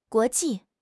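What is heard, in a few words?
"guo2 ji4", Mandarin Chinese; HSK4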